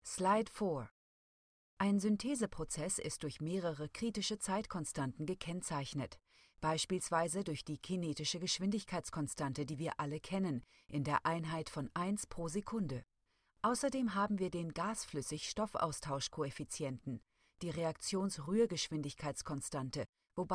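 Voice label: German, female, elearning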